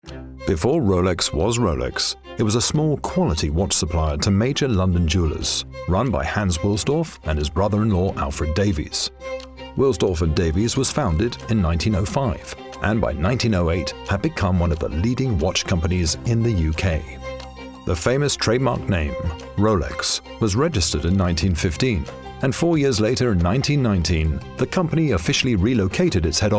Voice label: documentary